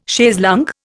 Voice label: "chaise longue", Indian